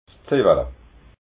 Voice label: "ziwala", alsace

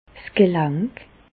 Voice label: "gelank", Bas Rhin; medecine; articulation

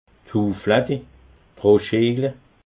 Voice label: "hueflatti", alsace

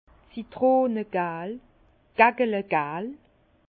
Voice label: "citronegal", Bas Rhin; jaune citron; vin

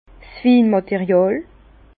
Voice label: "winmaterial", Bas Rhin; vin; matériel viticole

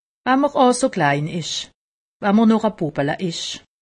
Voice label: "bubbele", alsace; medecine

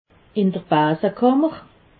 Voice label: "basekammer", alsace

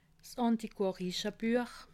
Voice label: "antiquarische", alsace; le livre ancien

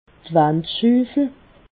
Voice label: "wandschuffel", alsace

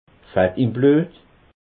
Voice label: "fett", alsace

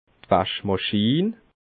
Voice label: "waschmaschin", alsace

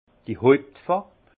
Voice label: "hauptfarb", alsace; vin; teinte principale

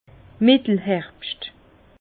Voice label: "mittelherbscht", Bas Rhin; vin; vendanges de qualité moyenne

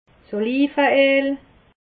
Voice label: "olive", alsace

